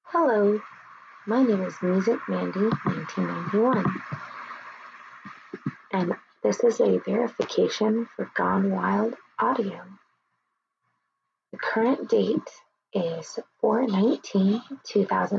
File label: Verfication, F